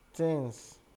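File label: "tings", Nigerian Pidgin, male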